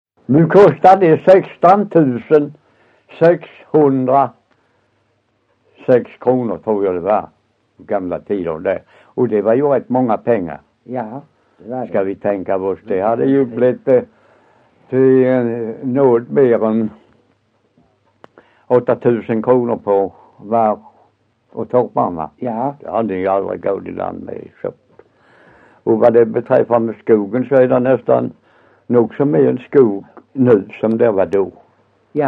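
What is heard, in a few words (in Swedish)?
Össjö Skåne, dialekter; Folkminnen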